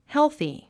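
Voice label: "healthy", English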